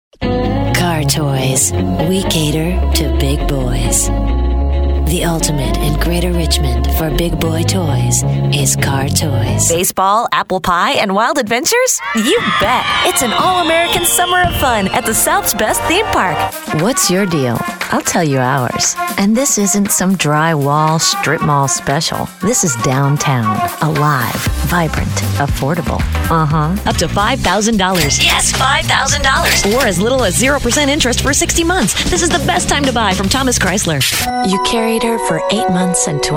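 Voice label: Announcer, real people female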